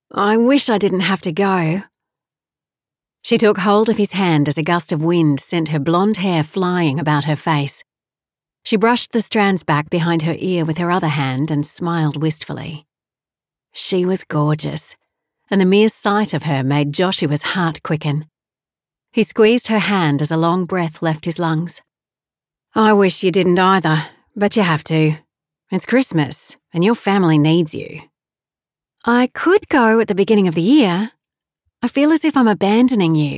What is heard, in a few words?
Audiobook Sample; Audiobook Narration; Slow Dance at Dusk